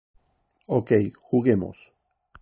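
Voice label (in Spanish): "ok juguemos", Spanish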